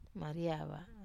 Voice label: "Mariava", Wamesa